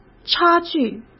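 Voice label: "差距", Mandarin Chinese; chinese flashcards; hsk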